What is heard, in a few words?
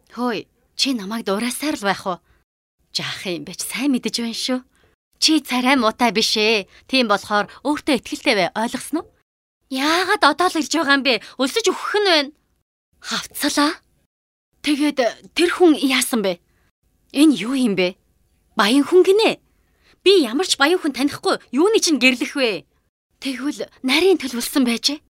Dubbing